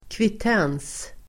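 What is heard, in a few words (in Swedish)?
"kvittens", Swedish; kvittens substantiv, receipt; Uttal: [kvit'en:s]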